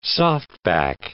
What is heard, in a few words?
"softback", English